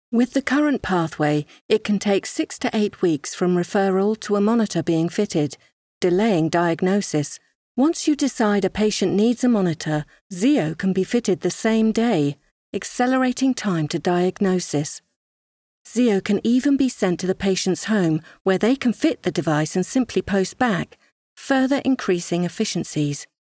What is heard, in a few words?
animation